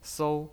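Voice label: "sou", Mandarin Chinese pinyin, first tone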